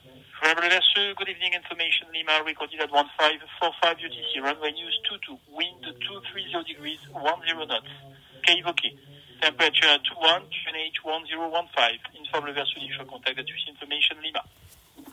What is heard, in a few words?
ATIS; LFLG; LIMA